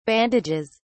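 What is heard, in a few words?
"bandages", British English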